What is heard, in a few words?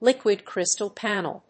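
"liquid crystal panel", English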